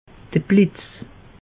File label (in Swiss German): "blitz", alsace; meteo